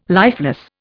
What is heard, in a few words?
"lifeless", Indian